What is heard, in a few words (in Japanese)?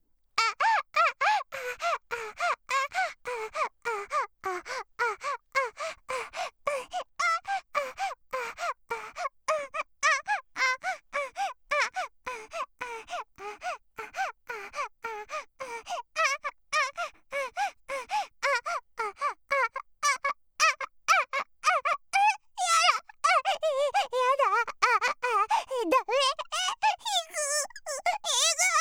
セリフ(可愛い感じで絶頂)